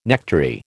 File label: "nectary", American